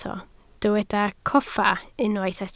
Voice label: "coffa", Welsh

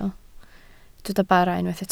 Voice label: "bara", Welsh

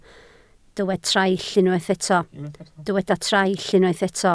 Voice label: "traill", Welsh

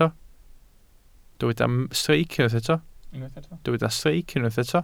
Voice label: "streic", welsh

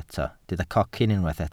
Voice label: "cocyn", welsh

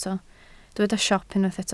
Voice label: "siop", Welsh

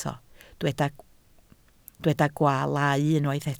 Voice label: "gwaalau", Welsh

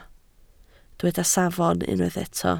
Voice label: "safon", Welsh

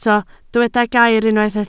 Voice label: "gair", Welsh

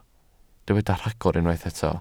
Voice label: "rhagor", Welsh

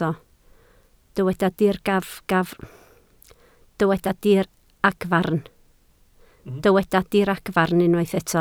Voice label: "diragfarn", Welsh

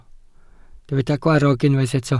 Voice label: "gwarrog", Welsh

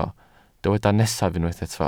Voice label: "nesaf", Welsh

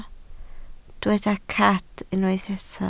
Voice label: "cad", Welsh

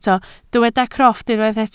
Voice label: "crofft", Welsh

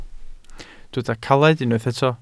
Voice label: "caled", Welsh

